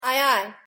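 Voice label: "aye-aye", English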